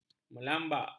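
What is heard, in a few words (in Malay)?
"melambak", Malay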